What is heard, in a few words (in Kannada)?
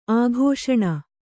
"ಅಕ್ಷೂಣ", kannada